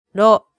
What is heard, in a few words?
"ro", hiragana